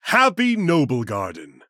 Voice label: Male Human HAPPY NOBLEGARDEN; Arthas

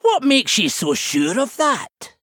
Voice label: Male Dwarf

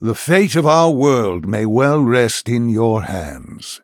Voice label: Male Human Bark; Khadgar

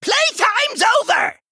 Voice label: Male Gnome Attack

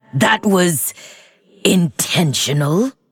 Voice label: Female Lich Oops

